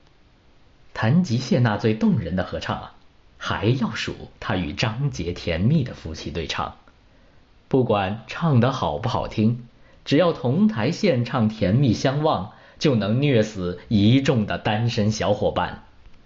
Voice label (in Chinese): 综艺类演绎类